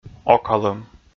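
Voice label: "окалӧм", Komi, male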